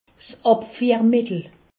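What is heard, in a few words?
"abfiermittel", Haut Rhin; laxatif; medecine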